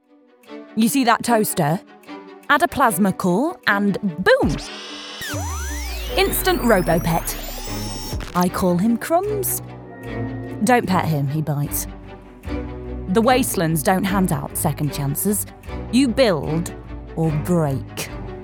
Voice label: Computer Games, 30s